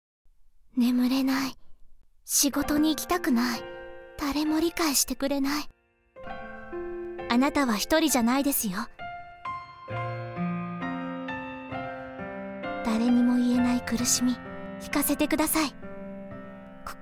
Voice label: japanese promos